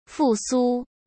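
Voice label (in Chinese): "复苏", Mandarin Chinese; chinese flashcards; hsk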